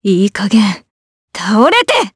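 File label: voice Skill, voices heroes, Japanese; Ripine; kingsraid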